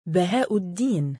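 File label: male "bahaa2uddiin", Arabic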